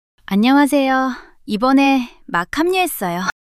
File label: shy